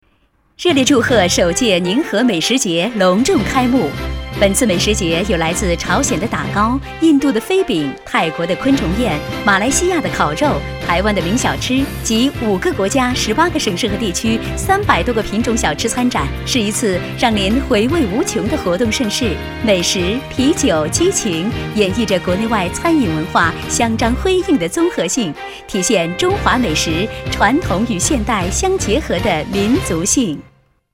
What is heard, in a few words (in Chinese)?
女 广告, 国语女声配音